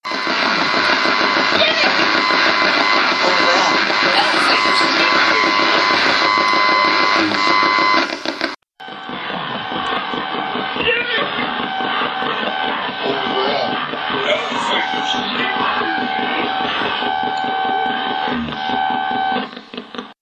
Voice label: radio voices give me peace